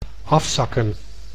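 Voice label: "afzakken", Dutch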